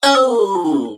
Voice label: Male Mech Death